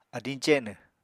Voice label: "adiñ cenw", Apatani